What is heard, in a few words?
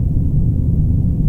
underground, broadcast; dystopia1.3 server